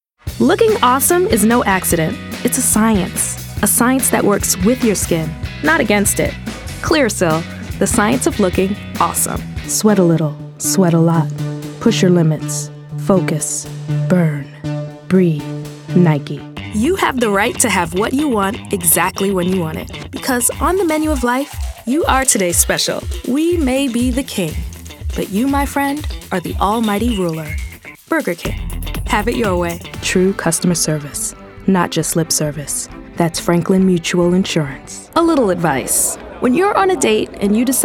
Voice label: Commercial Voice-Over